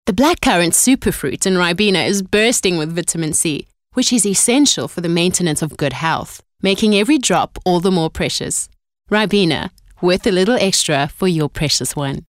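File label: english South African female commercial; voice demos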